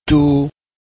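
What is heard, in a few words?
"zhu1", Mandarin Chinese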